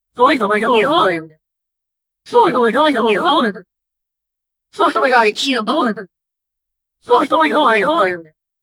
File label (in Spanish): una voz aguda diciendo we, announcement